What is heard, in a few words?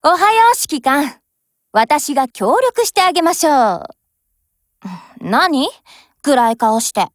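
HELLO, Japanese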